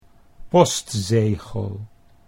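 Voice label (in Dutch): "postzegel", Dutch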